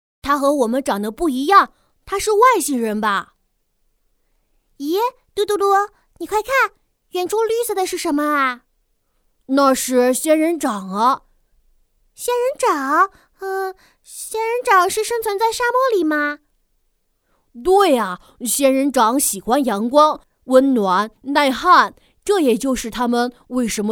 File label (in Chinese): 动画男女童声